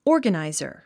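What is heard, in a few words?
"organizer", English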